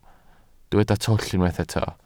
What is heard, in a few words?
"twll", Welsh